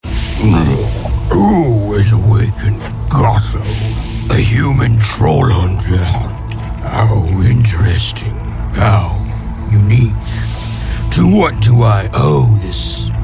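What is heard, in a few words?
ANIMATION, Youthful Upbeat Millennial Voice Actor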